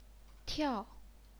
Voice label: "tiao4", chinese pinyin

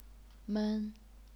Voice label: "men1", chinese pinyin

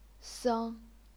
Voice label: "seng1", chinese pinyin

